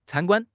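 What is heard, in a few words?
"can1 guan1", Chinese; HSK4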